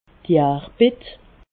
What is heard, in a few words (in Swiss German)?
"jarbit", alsace